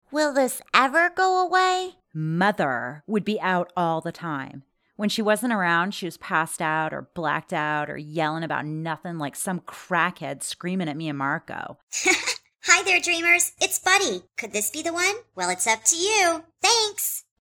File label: Character